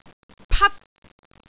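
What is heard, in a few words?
"pat1", Cantonese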